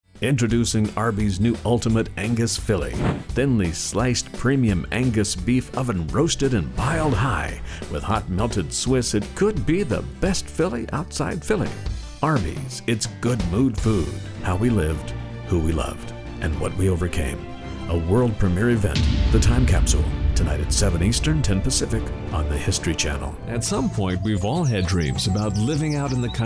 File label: Commercial